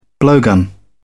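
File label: "blowgun", British English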